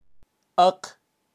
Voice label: "aq" cerdo, kaqchikel, pronunciacion de animales

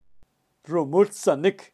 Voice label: "rumul sanik", kaqchikel, pronunciacion de animales

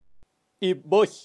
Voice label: "iboy", kaqchikel, pronunciacion de animales

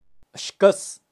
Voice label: "xkas", kaqchikel, pronunciacion de animales

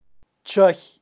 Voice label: "chay", kaqchikel, pronunciacion de animales

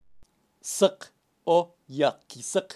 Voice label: "sak yakisak", kaqchikel, pronunciacion de animales

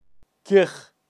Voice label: "kej", kaqchikel, pronunciacion de animales

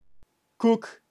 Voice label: "kuk", kaqchikel, pronunciacion de animales

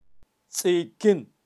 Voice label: "tzikin" pajaro, kaqchikel, pronunciacion de animales

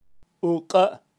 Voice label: "uka", kaqchikel, pronunciacion de animales

